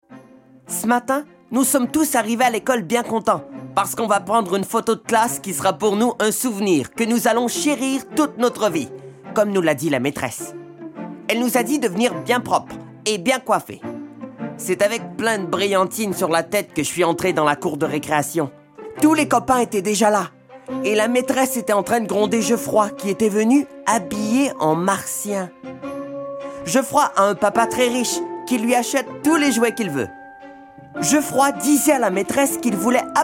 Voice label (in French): Narration Jeunesse